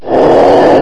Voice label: pain, ghost; svencoop; custom monsters